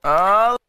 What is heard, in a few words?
"oh", Voice